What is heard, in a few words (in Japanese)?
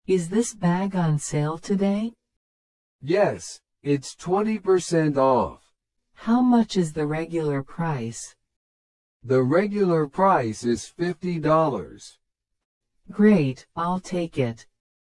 トーク スロー